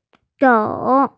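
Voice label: "zou3", Mandarin Chinese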